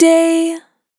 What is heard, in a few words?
"Short Day", vocal samples; Words